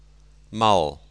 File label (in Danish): portugisisk mal; [malˠ]